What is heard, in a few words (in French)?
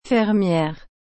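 "fermière", French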